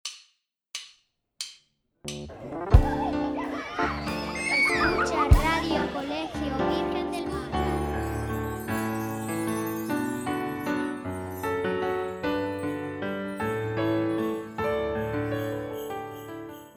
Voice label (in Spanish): Anuncio Navidad